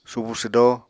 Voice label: "subu swdó", Apatani